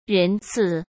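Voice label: "人次", Mandarin Chinese; chinese-flashcards; hsk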